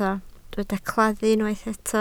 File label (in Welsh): "claddu" Welsh